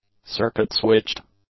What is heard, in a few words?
"circuit-switched", English; adjectives